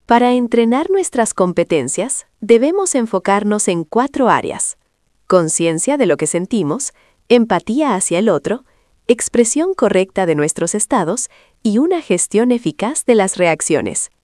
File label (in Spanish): locucion